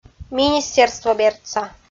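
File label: "министерствобердса", Komi, female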